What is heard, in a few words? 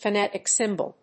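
"phonetic symbol", English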